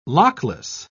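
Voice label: "lockless", English, British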